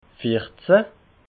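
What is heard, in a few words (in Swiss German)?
"vierzehn", Alsatian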